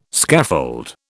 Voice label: "scaffold", British